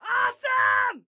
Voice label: Compliment Awesome, Excited Guy

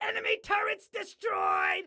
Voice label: enemy turrets, excited guy

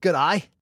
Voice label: Global Hi, Male

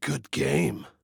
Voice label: Good Game, Male

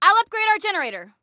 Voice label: Upgrade Self Generator, Female; T2 Female 04

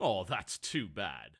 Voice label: Taunt Aww; TotalBiscuit

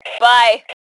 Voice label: Global Bye, Female; T2 Female 01

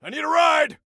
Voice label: Need Ride, Soldier Male

